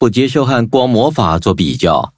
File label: levelup zh-hant; Cookie Run